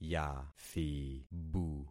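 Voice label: "yathibu", concatenated syllables; Affixing experiment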